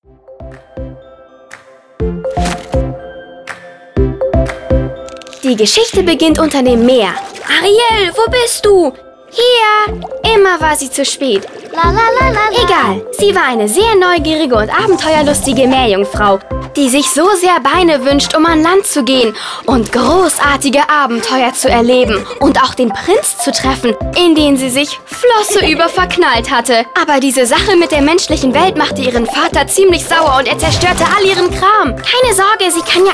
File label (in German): Retelling, maedchen; Disney Ariel